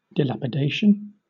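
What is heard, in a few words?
"dilapidation", British English; pronunciations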